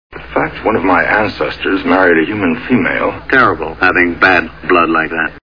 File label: female